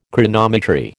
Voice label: "Chronometry", American